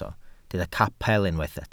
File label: "capel", Welsh